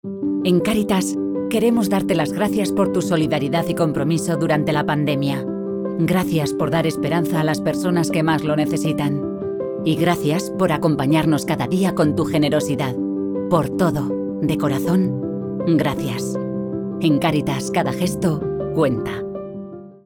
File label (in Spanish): Cuña radio Gracias